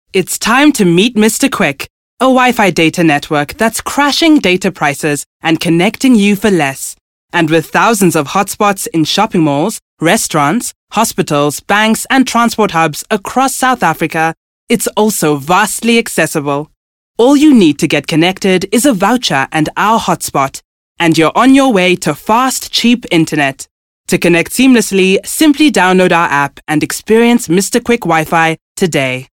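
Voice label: South African English, Commercial; GoLocalise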